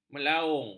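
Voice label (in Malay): "melaung", Malay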